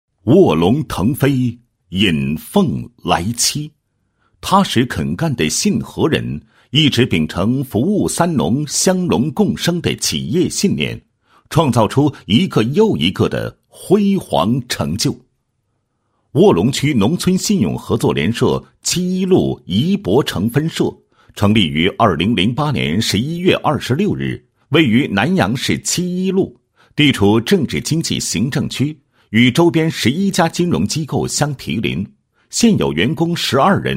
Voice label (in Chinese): 男 企业; 卧龙联社七一路怡博城分社